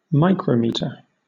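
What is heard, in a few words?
"micrometer", British English; pronunciations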